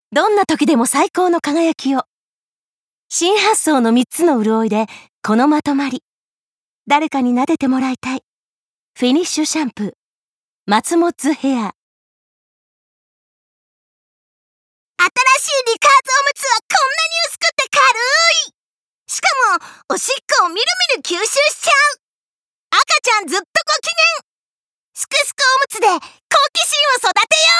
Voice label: Voice Sample Narration; Rica Matsumoto